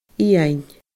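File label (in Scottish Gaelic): "Iain", Scottish Gaelic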